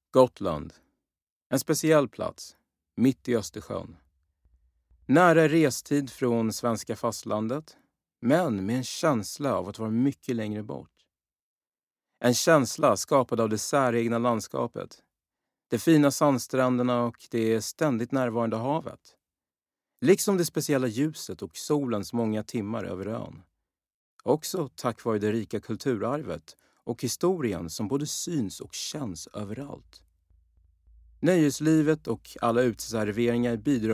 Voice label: tour Swedish; Gotland